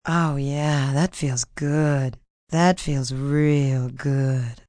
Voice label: Feels Good, Sexy, fun, female; VoiceBox